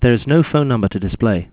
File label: there is no phone number to display, eng; sgh-d720; AnyVoice